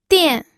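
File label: "dian", pinyin, fourth tone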